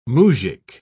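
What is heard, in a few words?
"moujik", British English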